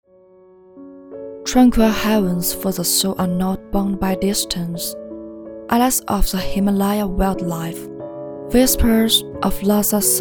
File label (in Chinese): 女 走心 英语